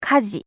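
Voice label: "kaji", Japanese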